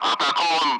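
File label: Speech, Russian, loud; Allied Flight; defending; DCS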